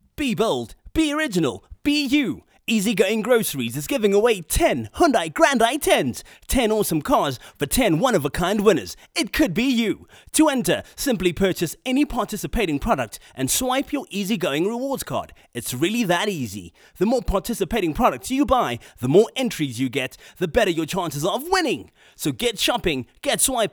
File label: Hard Sell